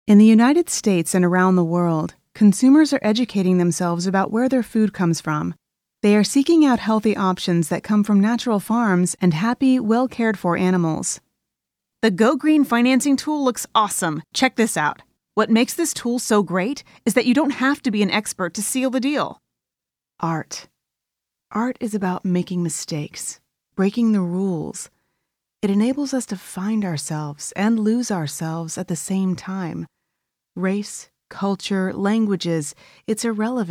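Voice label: American English, Corporate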